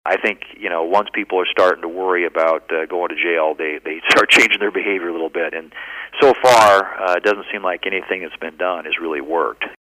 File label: robocall